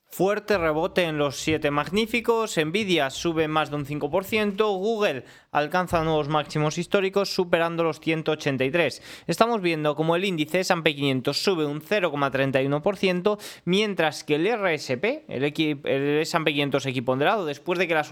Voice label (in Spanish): radio; noticias bolsa